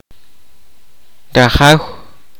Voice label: kaqchikel; owner master

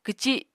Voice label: "kwci", Apatani

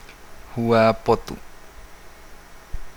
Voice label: "family", Nukuoro

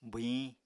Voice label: "bwhiñ", Apatani

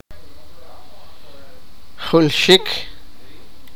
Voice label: sipakapense; dig

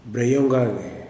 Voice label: "horn", kuman

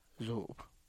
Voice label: "zup", stand Objects, tlacochahuaya

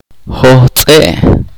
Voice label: "burro", chorti

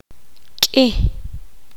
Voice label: poqomam; muchos

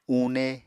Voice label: "une", Apatani

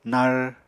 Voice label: "nar", Apatani

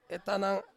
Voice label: "tanang" to plant, Ansus

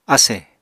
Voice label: "asè", apatani

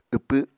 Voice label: "wpw", Apatani

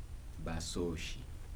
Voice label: "adults", Kibembe

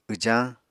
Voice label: "wjañ", Apatani

